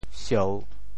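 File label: "sieu3", Teochew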